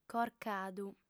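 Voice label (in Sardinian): "corcadu", female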